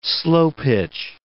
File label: "slow-pitch", English